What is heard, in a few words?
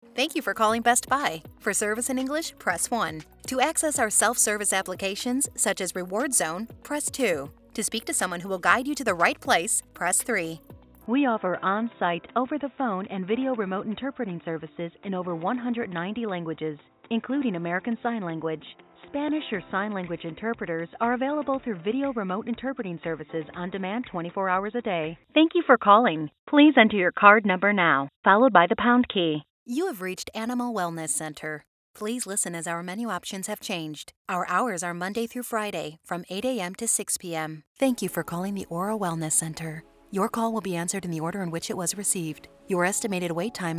IVR